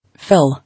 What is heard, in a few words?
"Fill", English